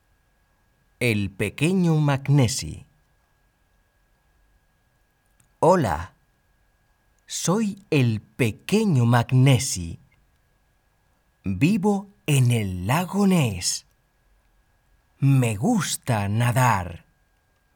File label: Wee MacNessie Spanish; bilingual english and spanish